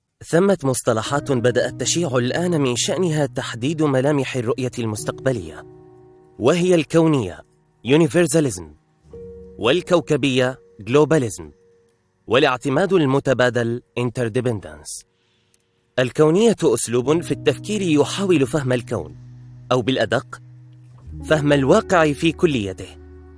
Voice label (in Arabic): تعليق صوتي لكتاب